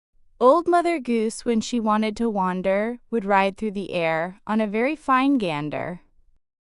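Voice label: female us; Old Mother Goose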